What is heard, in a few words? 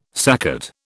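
"saccate", British English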